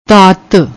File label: "dhaat", Punjabi; ਧਾਤ